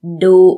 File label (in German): schimpfen